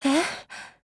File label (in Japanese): LOWMOOD JP